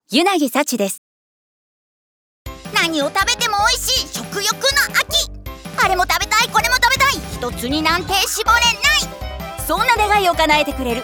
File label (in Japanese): コミカルキャラ＋明るい情報番組; 「ドリームツアー」